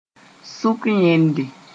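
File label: "sʋk yẽnde", Mooré; premolar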